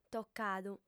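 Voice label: "tocadu", lingua sarda, female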